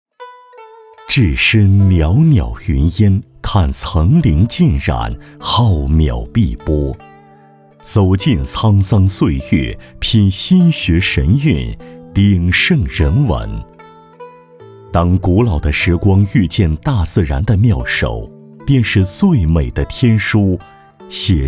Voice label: 男 旅游专题; 阳明山

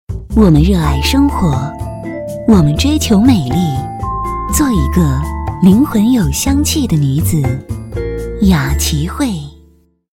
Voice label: 女 广告; 雅旗荟

